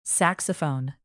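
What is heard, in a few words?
"saxophone", English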